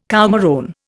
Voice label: "coumarone", Indian

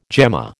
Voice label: "gemma", American

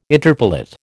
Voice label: "interpellate", American

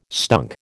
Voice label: "stunk", American

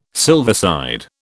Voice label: "silverside", British

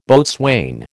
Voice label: "boatswain", American

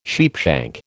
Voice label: "sheepshank", American

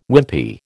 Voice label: "Wimpy", American

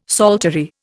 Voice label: "psaltery", Indian; Dictionary

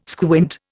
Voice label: "squint", Indian

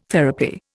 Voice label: "therapy", Indian

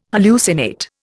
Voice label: "hallucinate", Indian

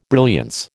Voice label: "brilliance", American

